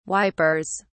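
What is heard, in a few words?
"wipers", British English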